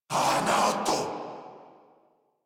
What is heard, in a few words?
Attack Corrupted Grineer Male